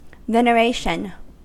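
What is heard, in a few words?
"veneration", American English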